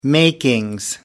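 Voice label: "makings", British English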